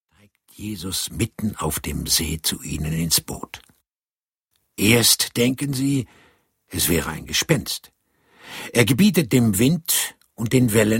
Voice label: Erzaehler

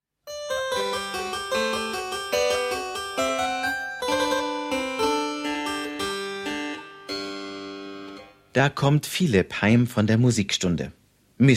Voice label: Hoerspiel; Die Sache mit der Schokolade

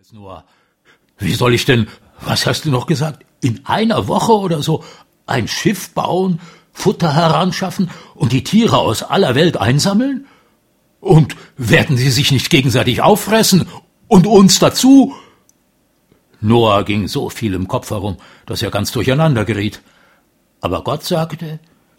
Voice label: Erzaehler

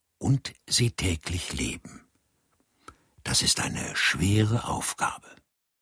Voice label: Erzaehler

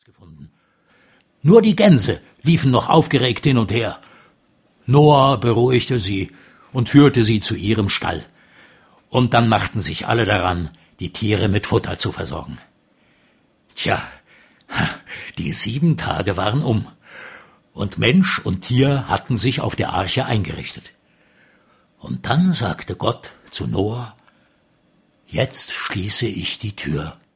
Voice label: Erzaehler

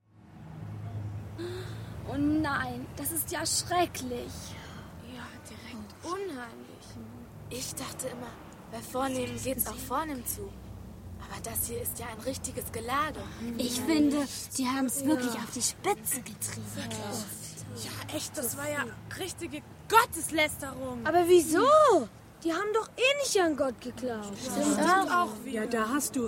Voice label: Sprecherszene Teenies; Wo gehts lang

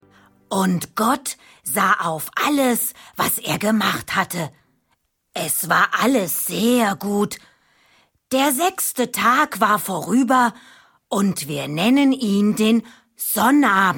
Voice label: Erzaehler

